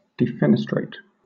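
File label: "defenestrate", British English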